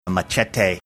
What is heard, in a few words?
saying machete funny manner